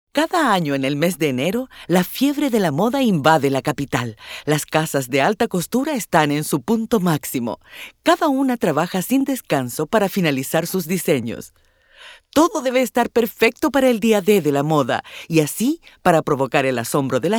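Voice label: latam female narration; voice demos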